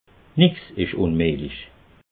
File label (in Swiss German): "nix", alsace